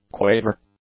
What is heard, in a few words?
"quaver", English; nouns